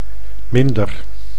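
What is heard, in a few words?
"minder", Dutch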